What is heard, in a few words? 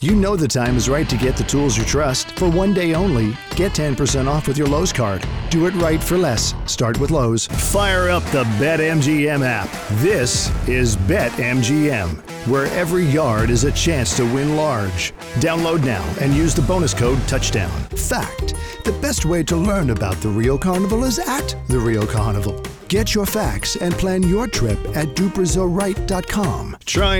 Commercial